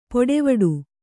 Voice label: "ಪೊಡೆವಡು", kannada

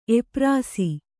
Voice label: "ಎಪ್ರಾಸಿ", Kannada

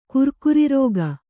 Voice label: "ಕುರ್ಕುರಿರೋಗ", Kannada

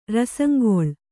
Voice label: "ರಸಂಗೊಳ್", Kannada

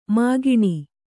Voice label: "ಮೈಗಣ್", Kannada

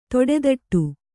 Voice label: "ತೊಡೆದಟ್ಟು", Kannada

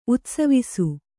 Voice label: "ಉತ್ಸವಿಸು", Kannada

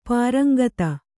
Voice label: "ಪರೇಂಗಿತ", Kannada

